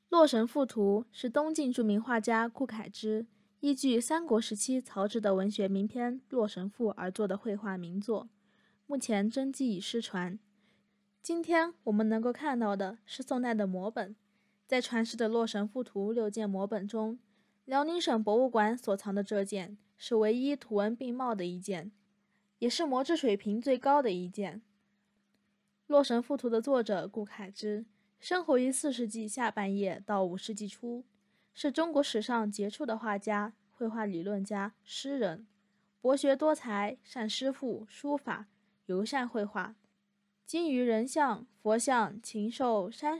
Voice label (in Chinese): 洛神赋图, 女, 普通话, 素人音